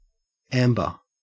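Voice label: "amber", Australian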